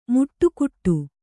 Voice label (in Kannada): "ಮಡಿಕಟ್ಟು", Kannada